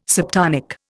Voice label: "subtonic", Indian